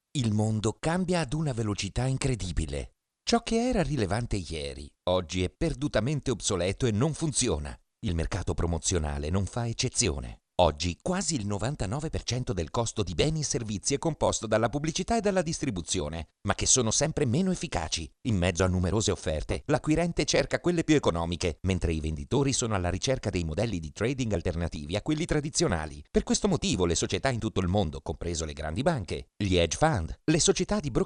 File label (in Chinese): 意大利语男 外语 小语种